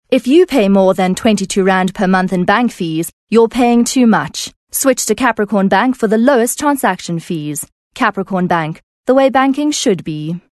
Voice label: English Corporate

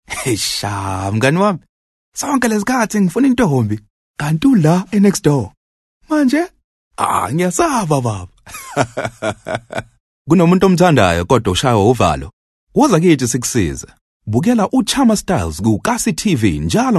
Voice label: Zulu Conversational

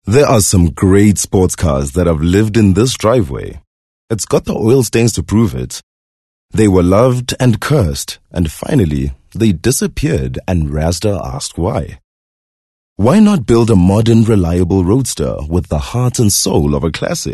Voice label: English conversational

